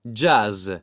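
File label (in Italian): "jazz", Italian word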